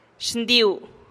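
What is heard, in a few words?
"xndiu", Teotitlán del Valle Zapotec; santiago ixtaltepec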